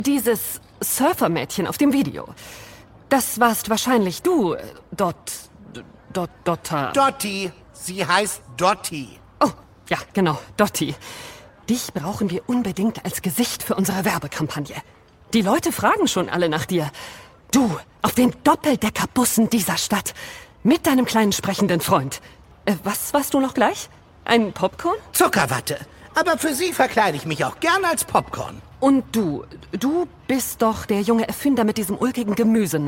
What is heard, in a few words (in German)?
Hoerspiel; Dotti Konfetti